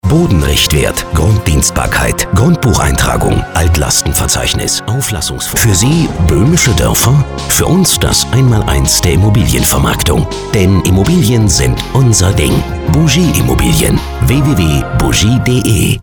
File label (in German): radio spot